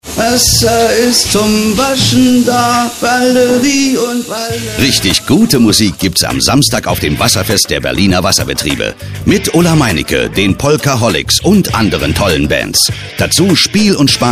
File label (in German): Hoerfunkspot